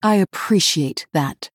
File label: Female Human Thanks